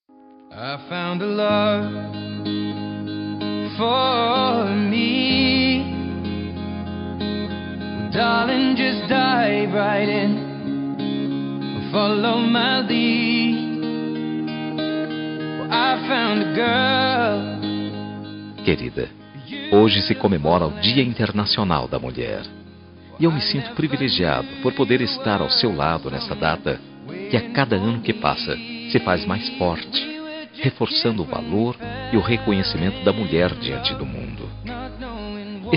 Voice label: esposa, dia das mulheres para esposa voz masculina

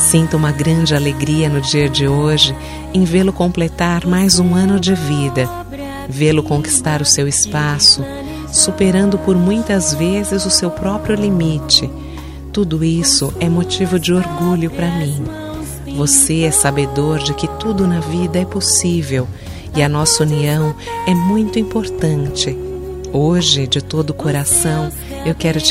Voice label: Aniversário de Filho – Voz Feminino; Telemensagens para todas as ocasiões

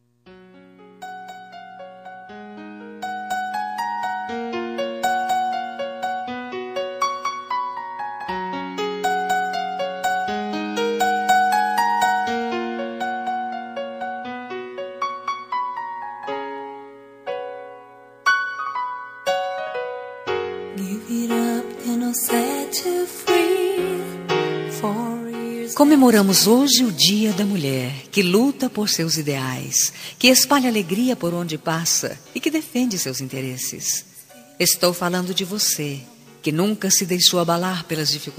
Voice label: mae fem, dia das mulheres para mae voz feminina; linda

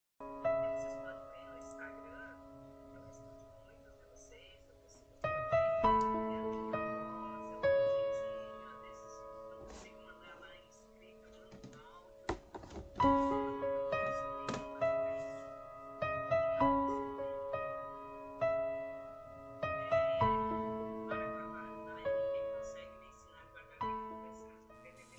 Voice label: voz masculina; dia das maes para esposa com 1 filha

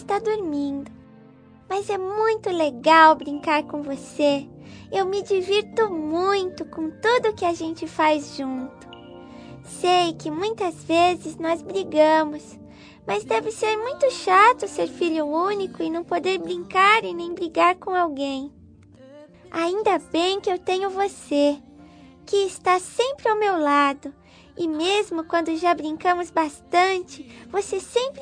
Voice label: infantil irmao a fem, Aniversário Voz Infantil Irmã(o) – Voz Feminina

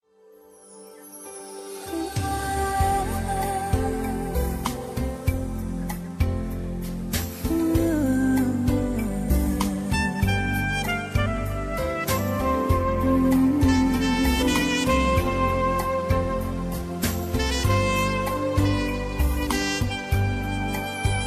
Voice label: Voz Masculina, Telemensagem; Telemensagem de Maternidade

